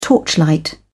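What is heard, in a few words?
"torchlight", British English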